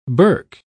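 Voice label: "burke", British English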